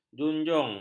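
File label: "junjung", Malay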